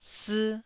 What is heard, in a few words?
"sii1", Taiwanese syllable